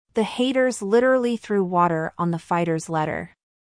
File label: american T; british vs american